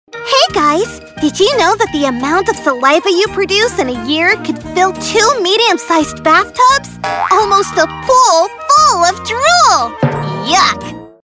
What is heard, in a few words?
Bright and bubbly young girl, e-learning